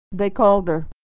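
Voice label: "they called her", American English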